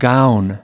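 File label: "gown", American English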